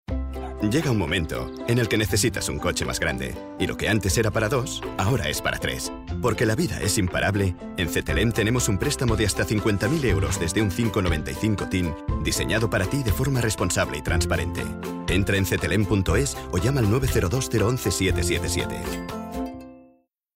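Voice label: male Spanish loan commercial; ideasonora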